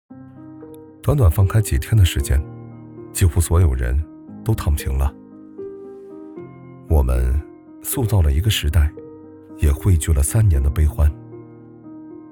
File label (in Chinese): 走心, 旁白/自白/独白-男-真人商业配音